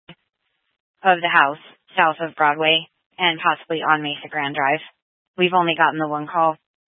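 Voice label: Incident Tac 2, scanner audio recording, Grand Junction, Mesa County, Colorado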